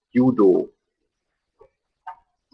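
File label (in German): "judo", German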